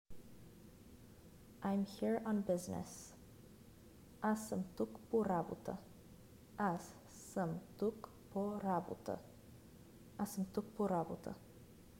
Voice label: I am here on business, bulgarian; the talking bulgarian phrasebook